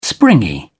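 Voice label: "springy", English, British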